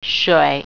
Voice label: water, mandarin